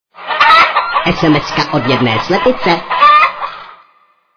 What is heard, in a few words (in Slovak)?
sms hlásky cz